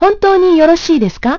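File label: are you sure, Japanese, announce voice; Nintendo; July 2020 Gigaleak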